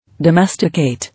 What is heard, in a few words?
"Domesticate", English